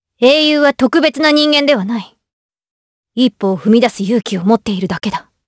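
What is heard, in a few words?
vox dialogue, voices, Japanese; kingsraid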